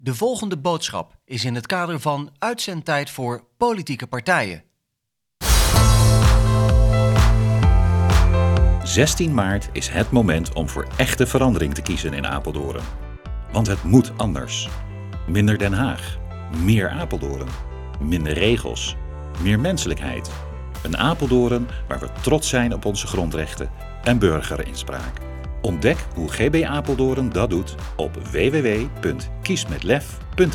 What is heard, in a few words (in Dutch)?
Radiospot; Apeldoorn